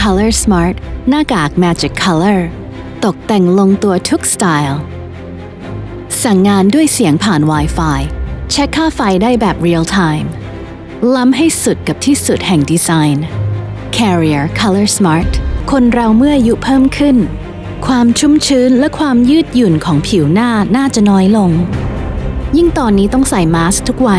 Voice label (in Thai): Thai Commercial